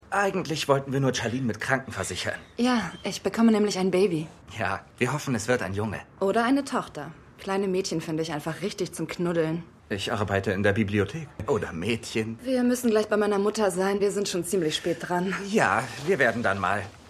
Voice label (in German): junger Mann junge Frau; Fargo